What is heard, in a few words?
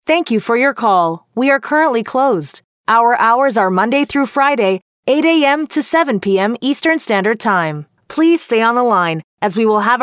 IVR English